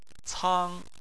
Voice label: "cang1", chinese